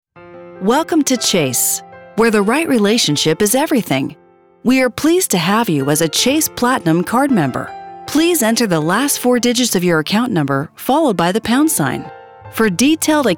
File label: women telephone